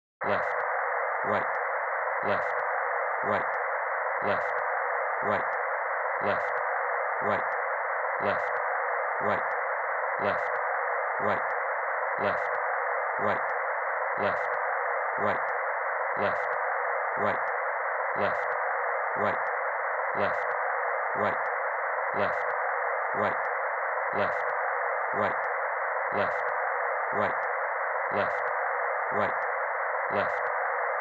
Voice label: Stereo channel identification; Stereo Setup and Imaging; Surround Spectacular